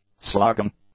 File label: "slugging", English; adjectives